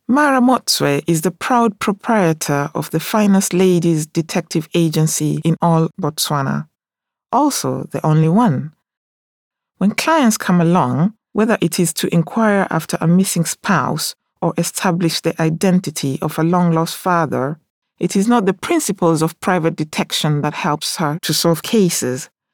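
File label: English, African, female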